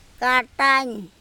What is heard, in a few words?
"kaTanj", andamanese; star